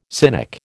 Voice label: "cynic", American

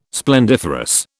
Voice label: "splendiferous", British

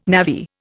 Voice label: "navvy", Indian

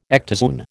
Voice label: "ectozoon", American

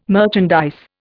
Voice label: "merchandise", Indian

